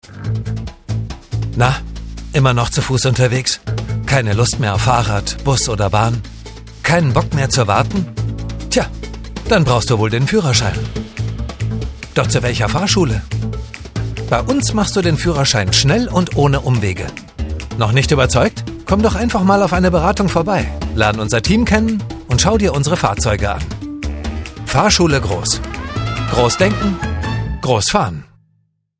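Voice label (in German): Werbung Freundlich; Fahrschule Gross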